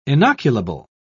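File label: "inoculable", British English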